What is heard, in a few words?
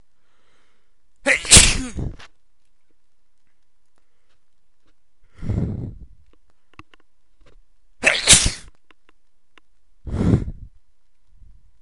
exploded, male